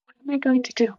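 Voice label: Voice Acting es, female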